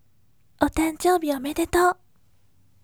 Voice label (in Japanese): voice otanjoubi omedetou yasashime